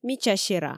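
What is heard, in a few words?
"мича сера", Komi, female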